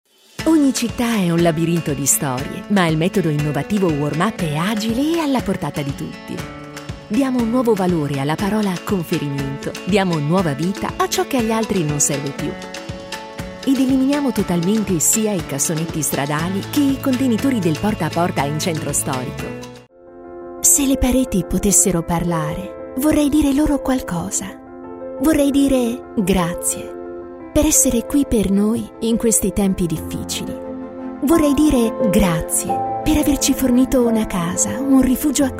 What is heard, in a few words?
italian corporate videos; professional Italian speaker